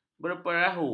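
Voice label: "berperahu", Malay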